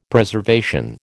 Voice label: "Preservation", American

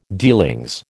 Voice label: "dealings", American

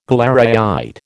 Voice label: "galleryite", American

